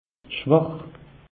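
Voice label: "schwach", Alsatian, Haut Rhin; meteo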